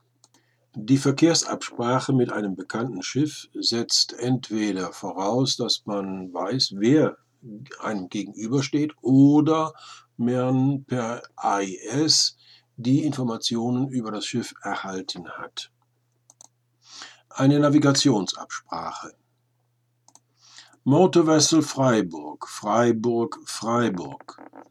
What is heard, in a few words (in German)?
Kontaktaufnahme Kursabsprache, Funk Seefunk; Freyburg; Motorvessel Freyburg; Sailingyacht Red Moon; Schiff → Schiff; routine; short range certificate sprechfunkbeispiele nach imo vorgabe